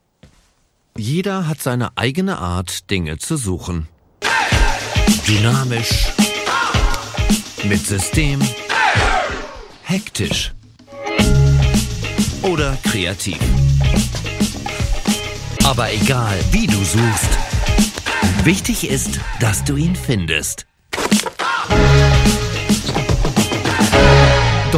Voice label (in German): Sprecher Kinospot; Spot (TV & Kino); Deutschland sucht den Impfpass